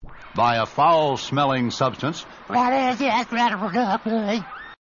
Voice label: "by a foul smelling substance", male; VOCALS and SPOKEN WORD